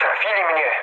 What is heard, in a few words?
aircraft damaged mood med, speech pol